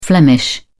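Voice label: "flemish", British English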